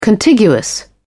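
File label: "contiguous", British English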